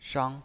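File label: "shang", Mandarin Chinese pinyin, first tone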